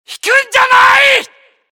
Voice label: jpn stand

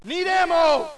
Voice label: us need ammo

